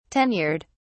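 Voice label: "tenured", British English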